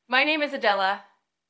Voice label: Voice Acting es